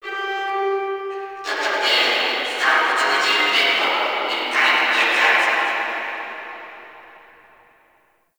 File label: Guild Announcer; Warframe